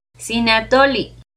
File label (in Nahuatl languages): "cinatolli", Náhuatl; atole de maiz; NAHUATLAHTOLLI